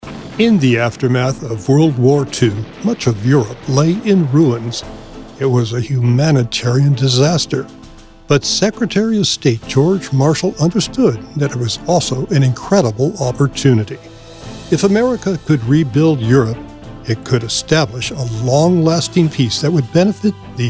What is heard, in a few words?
Narration